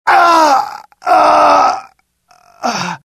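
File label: death, dialogue generic Italian; mohaa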